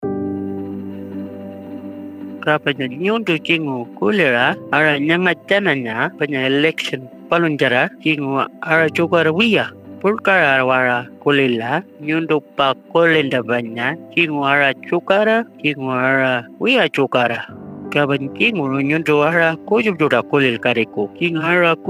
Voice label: Pitjantjatjara Radio mix; indigenous; AEC Misinfo